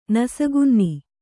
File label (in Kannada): "ನಸುಕುನ್ನಿ", kannada